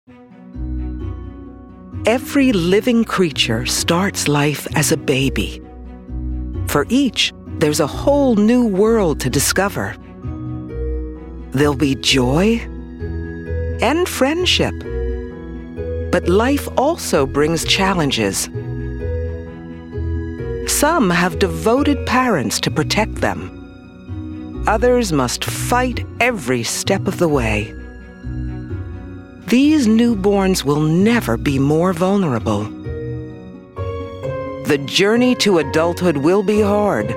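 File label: documentary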